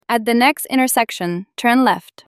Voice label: Female Turn Left

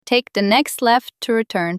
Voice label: Female Next Left To Return

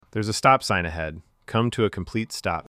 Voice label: Male Stop Sign Stop

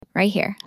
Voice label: Female Right Here

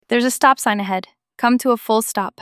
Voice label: Female Full Stop

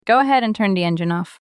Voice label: Female Turn Engine Off

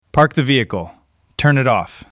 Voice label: Male Park Vehicle

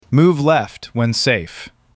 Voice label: Male Move Left

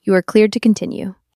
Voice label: Female Cleared To Continue

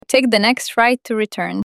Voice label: Female Next Right To Return

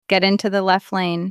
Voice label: Female Get Into Left Lane

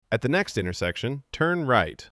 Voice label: Male Turn Right Intersection

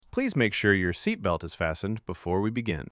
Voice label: Male Fasten Seatbelt

